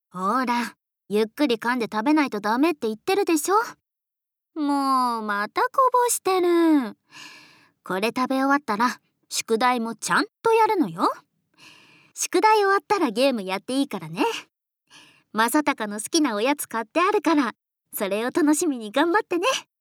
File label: Woman